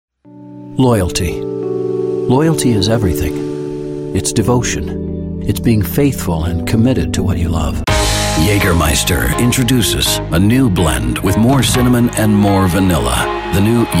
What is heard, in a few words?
American English Commercial